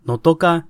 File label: my name is nahuatl; Notōcā